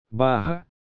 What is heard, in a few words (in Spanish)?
Barra en portugues